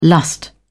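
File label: "lust", British English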